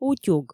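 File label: "утюг", Komi, female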